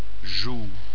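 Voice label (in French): "joue", French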